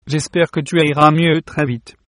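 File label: get well soon, French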